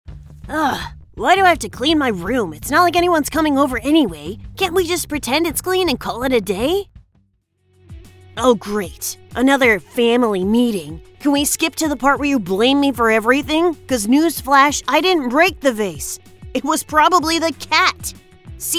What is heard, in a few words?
Brat Kid, voice over